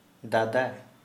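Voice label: older brother, Santali, male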